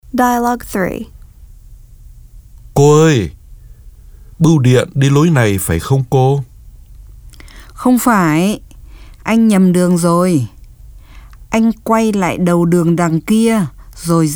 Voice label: Dialog